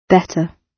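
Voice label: "better", British English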